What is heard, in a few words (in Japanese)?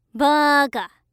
"バーカ", ASMR